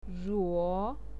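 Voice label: "ruo3", Mandarin Chinese pinyin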